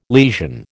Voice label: "lesion", American